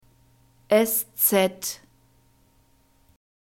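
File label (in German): "ß", German alphabet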